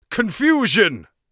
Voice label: confusion, Mage Voice Pack